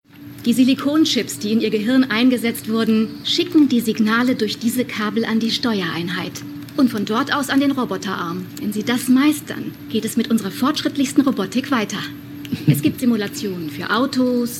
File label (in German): synchron; newamsterdam